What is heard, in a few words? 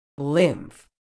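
"lymph", British English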